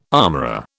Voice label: "armourer", British